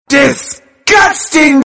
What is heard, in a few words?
disgusting